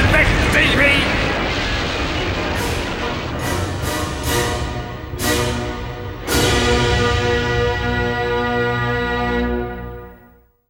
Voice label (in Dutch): Reclame Commercial; Eliminator